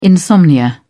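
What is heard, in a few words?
"insomnia", British English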